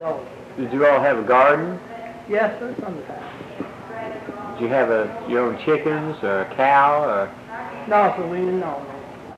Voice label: Oral history with 84 year old black female Joiner Arkansas, american english dialect recordings